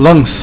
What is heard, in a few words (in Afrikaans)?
"links", Afrikaans